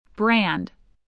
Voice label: "brand", spelling

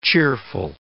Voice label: "cheerful", English

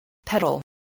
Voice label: "peddle", English, voice

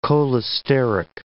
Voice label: "cholesteric", English

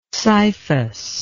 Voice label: "scyphus", English

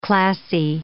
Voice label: "classy", English

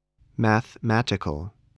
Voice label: "mathematical", spelling voice

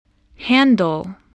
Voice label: "handle", spelling

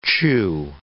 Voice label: "chew", English

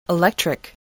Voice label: "electric", spelling

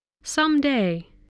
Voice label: "someday", English voice

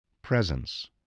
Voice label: "presence", voice, spelling

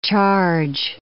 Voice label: "charge", English

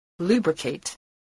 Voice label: "lubricate", spelling

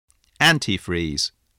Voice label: "anti-freeze", spelling

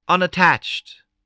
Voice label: "unattached", English spelling voice

